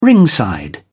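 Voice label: "ringside", British English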